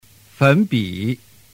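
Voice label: "fenbi", Chinese; giao trinh 301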